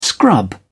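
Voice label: "scrub", British English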